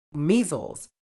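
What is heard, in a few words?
"measles", British English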